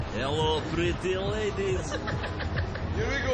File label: Advert; Vimto